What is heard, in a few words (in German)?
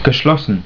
"Geschlossen", German